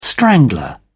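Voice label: "strangler", British English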